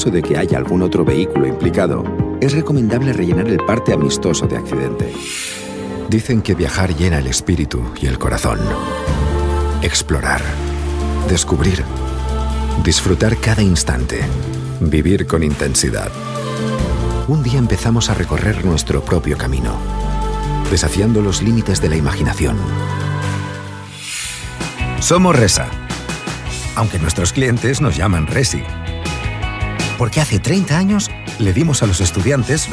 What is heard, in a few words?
spanish male spanish corporate